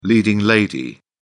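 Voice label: "leading lady", English, British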